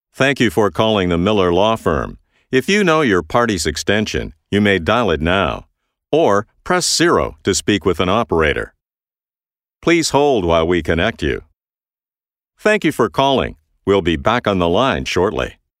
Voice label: english canadian ivr